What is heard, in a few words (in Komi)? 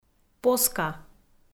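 "поска" Komi, female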